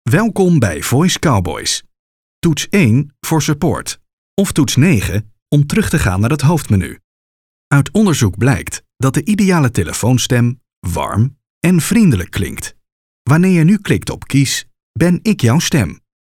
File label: Voicemail